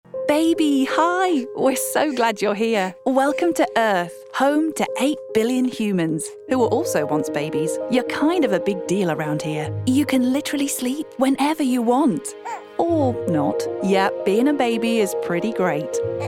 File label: female english midlands accent compilation; english northern accents